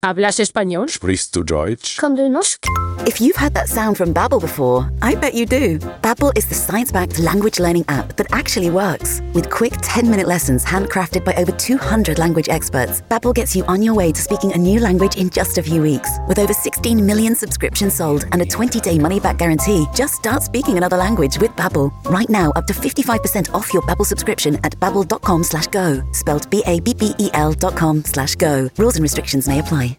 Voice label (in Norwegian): Innfødt voice Engelsk (UK); kommentator